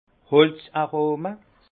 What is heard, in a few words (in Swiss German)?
"holz arome", alsace; vin; arômes de bois